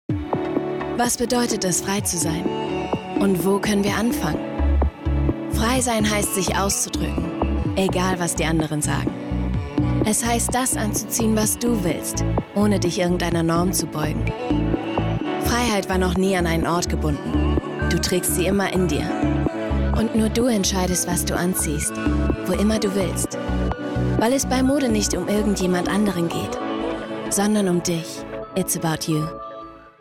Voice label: Werbung Kino; About You

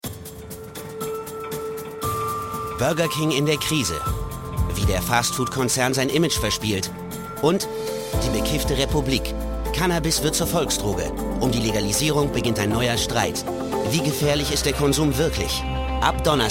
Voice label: Werbung TV; Stern; LOFT VOICES

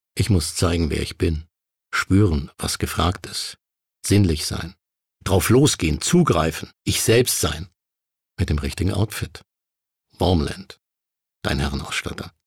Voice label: werbung

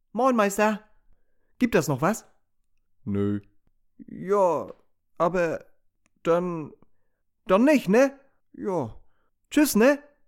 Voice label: dialekt nordisch

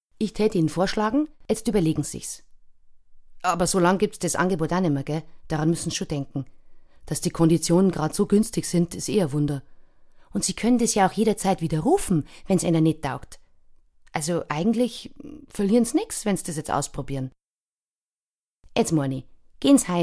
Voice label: bayerisch

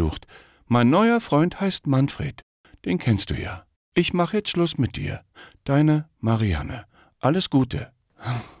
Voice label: trennung radio; radio charivari

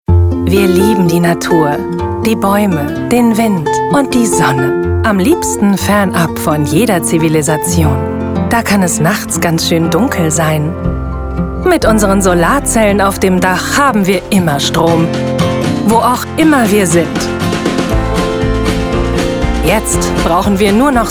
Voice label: Produktfilm Solarstrom; LOFT VOICES

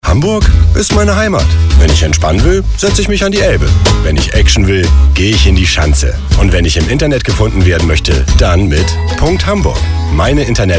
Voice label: Radiospot, Commercial, German

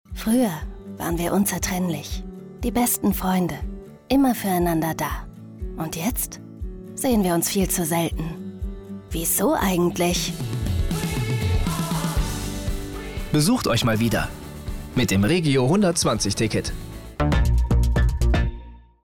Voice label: Werbung Funk; DB Regio

